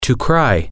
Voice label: "to cry", English

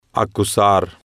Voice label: "acusar", Spanish

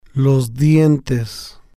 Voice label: "los Dientes", spanish

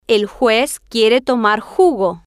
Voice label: el Juez Quiere Tomar Jugo, Spanish

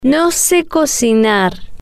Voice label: "no Se Cocinar", spanish

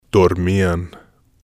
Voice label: "dormian", spanish

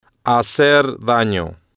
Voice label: "hacer dano", Spanish

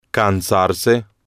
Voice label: "cansarse", Spanish

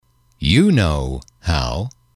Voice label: you Know How, M

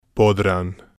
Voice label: "podran", spanish

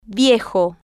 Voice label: "viejo", Spanish

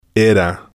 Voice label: "era", spanish

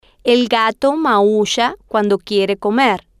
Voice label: el Gato Maull A Cuando Quiere Comer, Spanish

